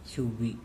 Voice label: "Subi", andamanese; snake